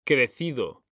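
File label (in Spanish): "crecido" Spanish, male; mekanta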